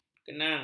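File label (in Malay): "kenang", Malay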